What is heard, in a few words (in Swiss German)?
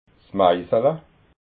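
"maisala", Alsatian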